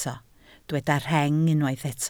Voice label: "rheng", Welsh